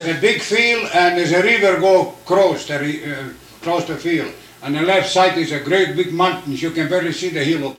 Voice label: Personal history with 88 year old male Eveleth Minnesota, american english dialect